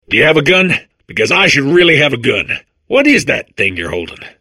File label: babble; portal2